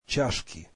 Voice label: russian cups

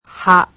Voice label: "ha", japanese; alphabet

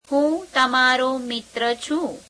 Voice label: gujarati I am your friend

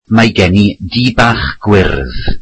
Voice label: welsh I have a small green house

